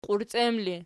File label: "qiurciem", Archi